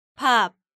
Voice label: "Bab", Korean

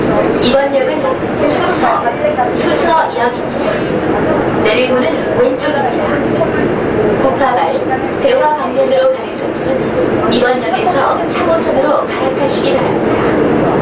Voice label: station announcement, Korean

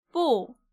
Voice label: "Bbo", Korean

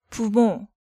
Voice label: "Bumo", Korean

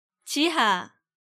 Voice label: "Jiha", Korean

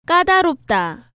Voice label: "Kkadaropda", Korean

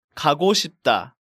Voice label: "Gagosipda", Korean

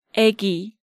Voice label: "Aegi", Korean

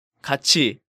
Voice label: "gachi", Korean

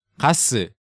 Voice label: "gaseu", Korean

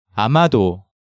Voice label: "amado", Korean

